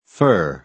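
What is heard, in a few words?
"fur", American English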